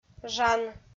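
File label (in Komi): "жан", Komi, female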